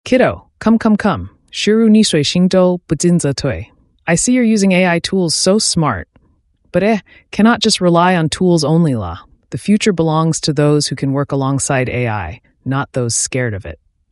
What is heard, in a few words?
English Mandarin; MiniMax Speech